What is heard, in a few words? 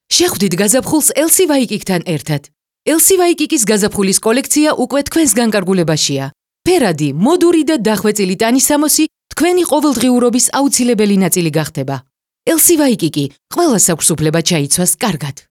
georgian female; dictor; dictor-sounds